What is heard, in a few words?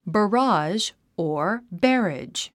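"barrage", English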